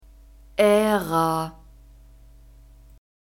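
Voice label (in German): "Ära", German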